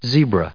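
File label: "zebra", English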